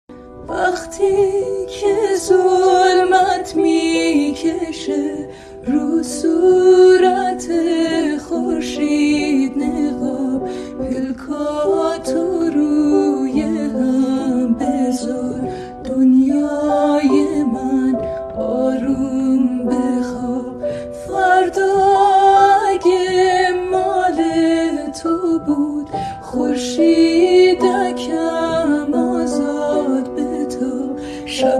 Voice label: Sedaye Zan